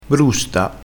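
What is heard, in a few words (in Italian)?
"brusta", dialettale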